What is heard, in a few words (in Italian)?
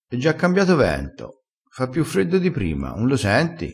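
"cambia vento", dialettale crespinese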